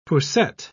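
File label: "poussette", British English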